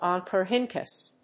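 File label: "Oncorhynchus", English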